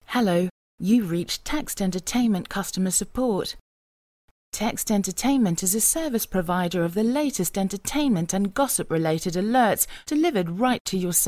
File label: IVR sample